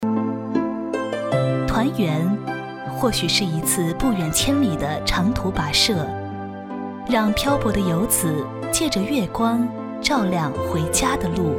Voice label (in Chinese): 女 广告 团圆广告